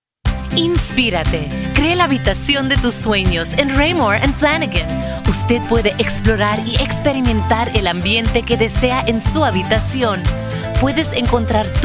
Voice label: spanish latin american neutral commercial